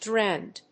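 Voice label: "DRN", English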